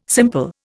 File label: "simple", Indian